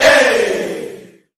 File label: "yay", group of men; ooh ahh